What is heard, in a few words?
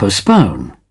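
"postpone", British English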